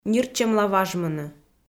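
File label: "ниртчӧмла важмыны", Komi, female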